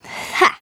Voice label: VO en